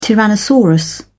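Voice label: "tyrannosaurus", British English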